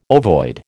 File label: "ovoid", American